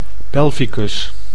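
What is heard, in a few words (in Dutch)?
"pelvicus", Dutch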